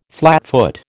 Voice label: "flatfoot", American